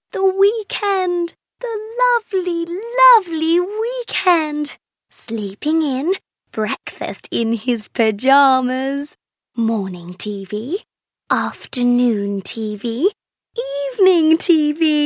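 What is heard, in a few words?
drama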